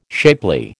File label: "shapely", American